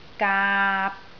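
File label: "gaap3", Cantonese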